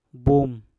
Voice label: "bum" kabut, Mpur

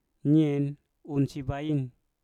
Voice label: "nyen un si baim" Mama de pi kebun, Mpur

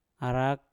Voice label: "arak" manik-manik, Mpur

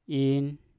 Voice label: "in" saya, Mpur

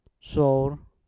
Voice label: "sour" gunung, Mpur

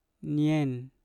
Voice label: "nyen" mama, Mpur

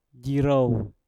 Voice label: "jirouw" lembah, Mpur

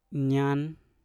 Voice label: "nyan" istri, Mpur

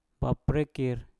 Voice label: "baprekkir" kasuari, Mpur

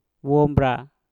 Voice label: "wombra" rajin, Mpur

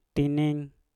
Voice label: "tinin" hitung, Mpur

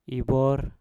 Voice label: "bor" tali, Mpur

